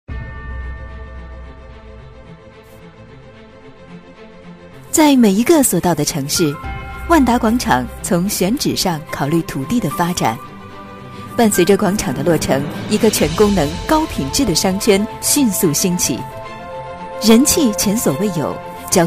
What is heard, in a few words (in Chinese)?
宣传; 万达广场宣传